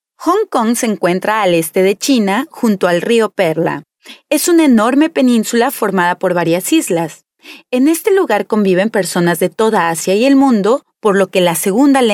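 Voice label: female corporate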